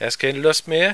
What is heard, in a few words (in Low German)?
kein lust mehr, plattdeutsch